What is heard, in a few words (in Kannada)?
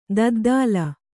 "ತದ್ದಲು", Kannada